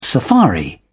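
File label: "safari", English, British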